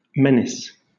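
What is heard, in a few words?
"menace", British English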